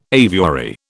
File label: "aviary", British